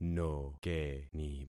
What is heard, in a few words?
"nokeni", concat syllables; Affixing experiment